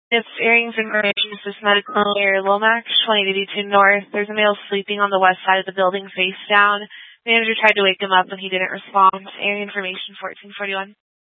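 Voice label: Incident Tactical, scanner audio recording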